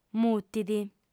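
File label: "mutit" Sardinian, female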